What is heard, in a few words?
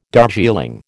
"Darjeeling", American